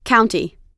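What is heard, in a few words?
"county", US English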